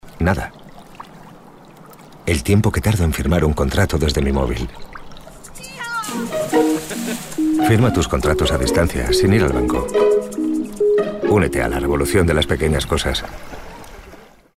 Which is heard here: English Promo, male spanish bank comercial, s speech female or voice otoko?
male spanish bank comercial